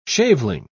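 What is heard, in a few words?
"shaveling", British English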